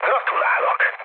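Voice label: player killed enemy, mood med, Hungarian; War Thunder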